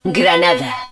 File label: grenade launcher, Spanish